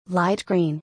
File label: "Light Green", English; color-in-english